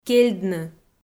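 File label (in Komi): "кельдны", Komi, female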